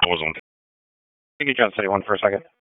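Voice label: Police Northwest District Dispatch; Raleigh; p25; wake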